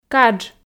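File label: "кадж", Komi, female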